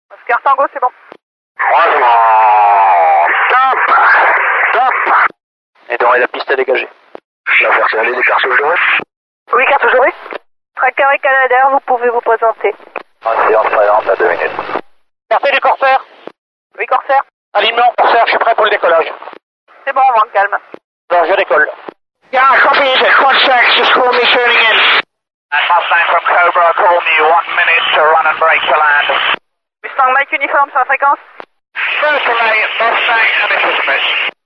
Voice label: radio montage